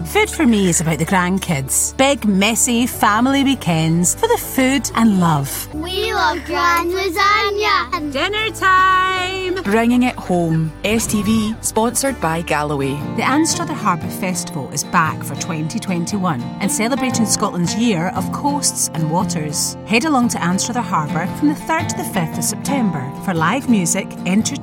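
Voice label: British English, Commercial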